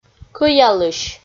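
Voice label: "кӧялысь" Komi, female; kpv audio dict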